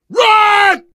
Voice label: emphatic run, survivor voice; source engine; left 4 dead 2